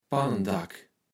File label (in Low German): "Pannendack", Platt